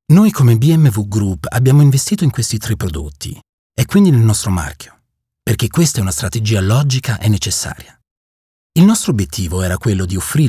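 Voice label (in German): italienisch; Werbung / Fremdsprache; BMW; souverän / bestimmt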